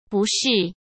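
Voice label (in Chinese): "不适", Mandarin Chinese; chinese flashcards; hsk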